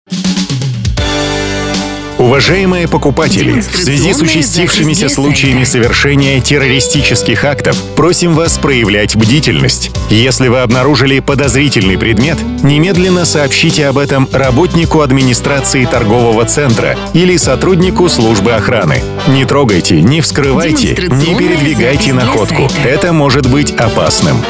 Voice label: Ролик Антитеррор мужской

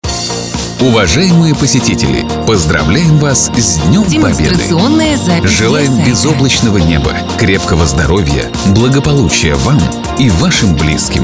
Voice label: Поздравление с 9 мая универсальное мужской

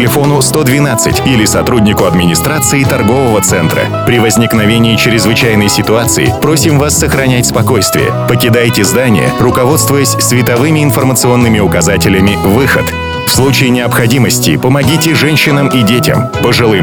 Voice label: Ролик о пожарной безопасности без лифта и эскалатора мужской

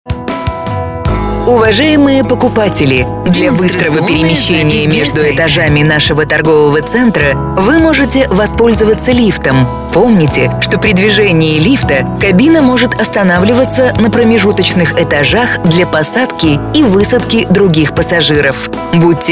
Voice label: Информация про лифт женский

